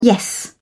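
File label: "yes", British English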